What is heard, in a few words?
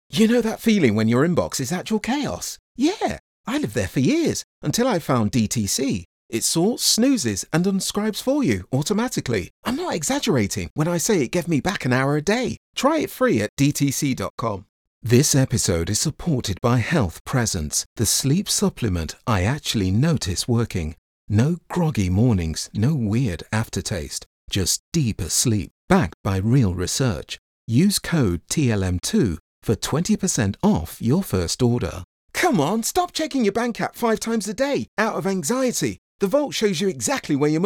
Podcast